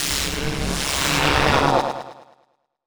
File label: VOICEFX TEKNO 140, Techno, Voice